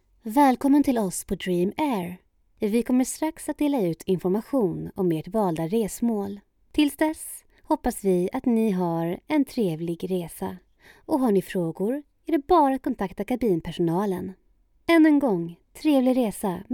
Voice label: Swedish voice